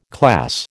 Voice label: "class", American

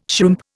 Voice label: "shrimp", Indian

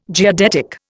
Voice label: "geodetic", Indian

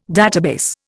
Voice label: "database", Indian

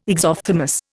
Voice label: "exophthalmos", Indian

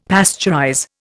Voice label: "pasteurize", Indian; Dictionary

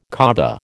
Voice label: "Kaaba", American

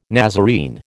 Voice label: "Nazarene", American

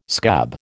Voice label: "scab", American

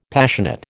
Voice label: "Passionate", American

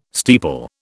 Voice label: "steeple", British

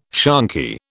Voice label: "shonky", British

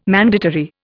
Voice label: "mandatory", Indian

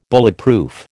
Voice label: "Bulletproof", American

